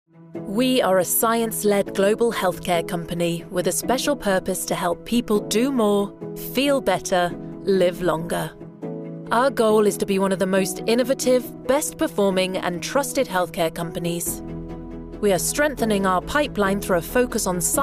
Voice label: CORPORATE